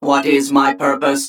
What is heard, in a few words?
Male Mech